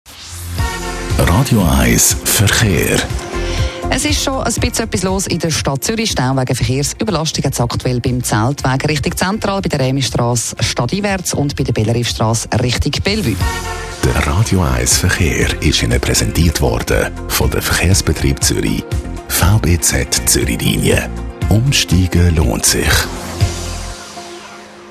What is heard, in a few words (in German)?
Radio Verkehr; Radio 1; VBZ